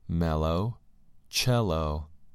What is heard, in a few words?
mellow cello